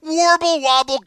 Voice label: warble wobble, gibbrish; Voice

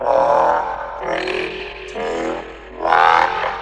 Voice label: "1 2 3" robot; numbers and letters

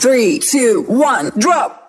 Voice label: "3 2 1" drop; Voice; numbers and letters

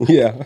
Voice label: "yeah" amused

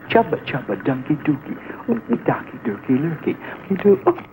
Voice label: chocky choopy loopy, gibbrish

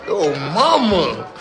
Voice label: "oh mama", expletives, g-rated swears

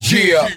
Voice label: "yeah", group of men

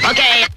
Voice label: okay cartoon

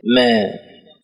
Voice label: "man", g-rated swears

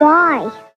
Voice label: "why", girl

